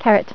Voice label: "Carrott", Female; TalkingKeys